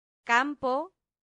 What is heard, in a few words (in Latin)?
"campo", Latin; santillana